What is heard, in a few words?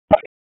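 Raleigh Police Northeast District Dispatch, p25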